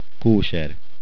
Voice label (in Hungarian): kosher, hungarian